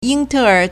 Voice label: "ying1 te2 er3", Chinese

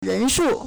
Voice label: "ren2shu4", Chinese

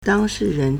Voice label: "dang1 shi4 ren2", Chinese

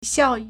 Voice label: "xiao4yi4", Chinese

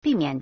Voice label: "bi4mian3", Chinese

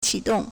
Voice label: "qi3 dong4", Chinese

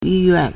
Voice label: "yi1 yuan4", Chinese

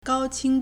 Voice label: "gao1 qing1", Chinese

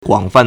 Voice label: "guang3fan4", Chinese

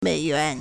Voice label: "mei3yuan2", Chinese

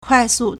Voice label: "kuai4su4", Chinese

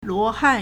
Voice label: "luo2han4", Chinese

